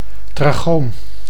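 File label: "trachoom", Dutch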